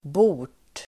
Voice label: "bort", Swedish

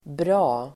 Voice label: "bra", Swedish

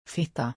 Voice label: "Fitta", Swedish

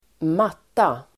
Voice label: "matta", Swedish

